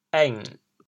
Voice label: "êng3", chaoyang